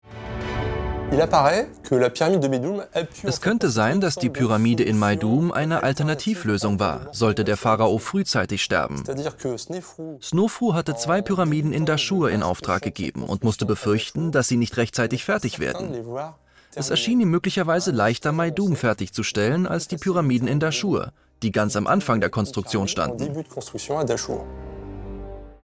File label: Voiceover; ZDF; Pyramiden